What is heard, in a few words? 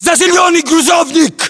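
order cover truck green, battlechatter, Russian; localized english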